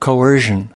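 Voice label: "coercion", US English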